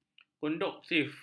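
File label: "kondusif", Malay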